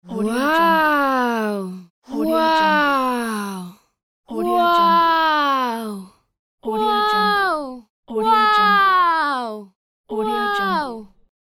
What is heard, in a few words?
female wow surprised voice